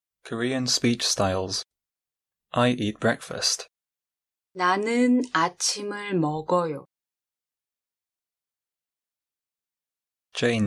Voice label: Korean speech styles